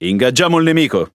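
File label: Italian speech Pilots Bomber Engage; World Of Warships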